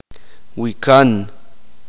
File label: uspanteko